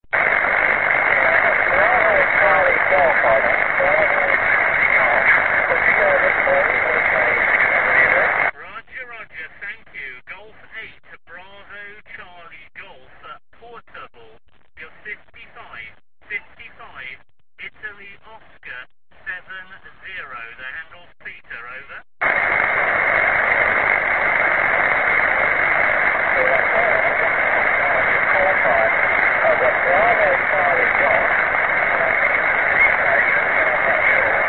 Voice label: qso